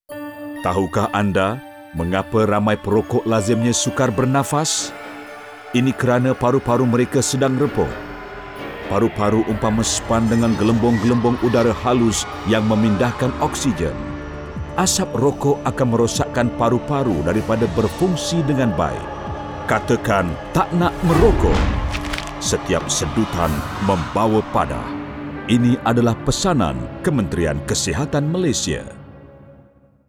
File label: radio comm BM; taknak; Lung